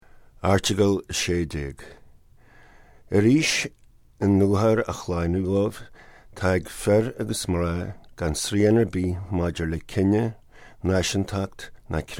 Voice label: Gaelic